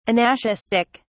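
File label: "anaschistic", British English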